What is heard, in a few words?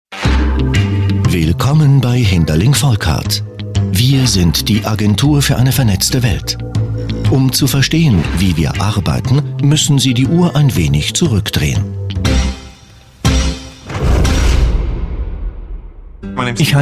corporate sampler German